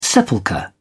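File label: "sepulchre", British English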